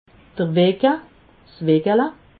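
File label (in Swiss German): "wecke", Alsatian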